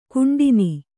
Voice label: "ಖಂಡನೆ", Kannada

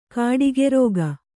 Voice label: "ಗುಡಿಗಾರಿಕೆ", Kannada

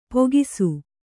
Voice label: "ಪೋಗಿಸು", kannada

